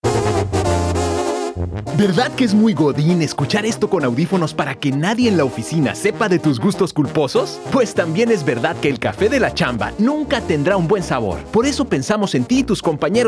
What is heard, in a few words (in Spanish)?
Español - México - Hombre; VoiceBunny